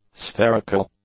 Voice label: "spherical", english; adjectives

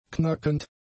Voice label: "knackend", German; adjectives

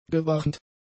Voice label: "gewarnt", German; adjectives

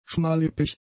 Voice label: "schmallippig", German; adjectives

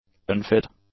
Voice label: "unfit", english; adjectives

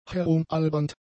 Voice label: "herumalbernd", German; adjectives

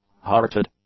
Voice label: "hearted", English; adjectives

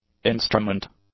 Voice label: "instrument", English; nouns

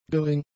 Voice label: "gering", German; adjectives

